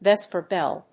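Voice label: "vesperbell", English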